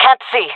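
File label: blinded, jungle female; vo; agents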